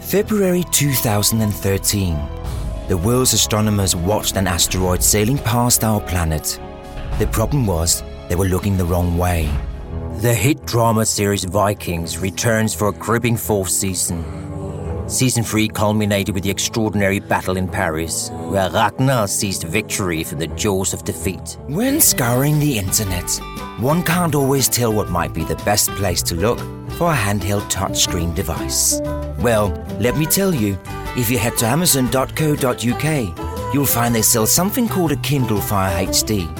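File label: Danish English; Danish, Scandinavian, Male, Home Studio, 20s-30s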